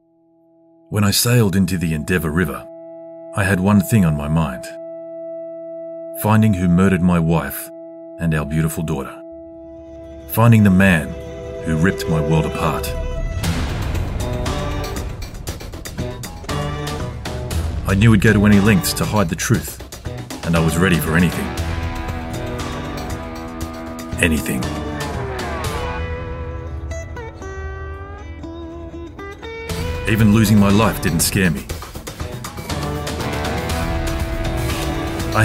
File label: Audiobooks Trailermade; Northern Heat